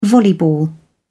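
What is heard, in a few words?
"volleyball", British English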